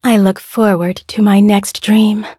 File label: level up, en; cookie run